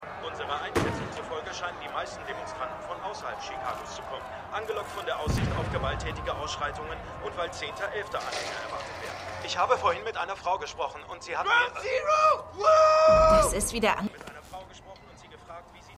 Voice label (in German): Reporter Weisssuprematist; The Good Fight